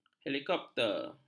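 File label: "helikopter", Malay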